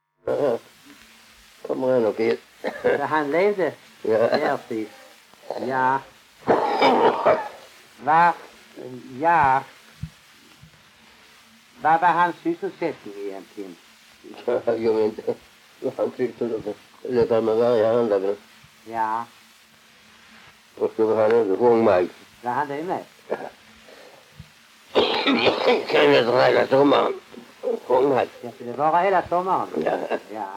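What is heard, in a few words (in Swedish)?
Allerum Skåne, dialekter svenska sagor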